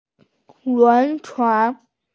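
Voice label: "lun2chuan2", Mandarin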